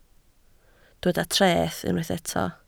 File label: "treth", Welsh